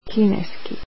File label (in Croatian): "Chinese", croatian